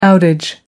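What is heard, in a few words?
"outage", British English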